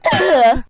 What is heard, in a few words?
"ew", girl; ooh ahh